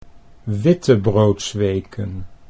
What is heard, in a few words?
"wittebroodsweken", Dutch